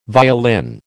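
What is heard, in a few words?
"violin", American English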